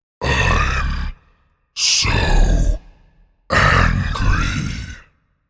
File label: I'm So Angry Spoken, The word ‘I'm So Angry’ spoken in deep, Monster voice.; Attitude